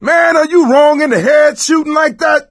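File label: team kill accident, voice, male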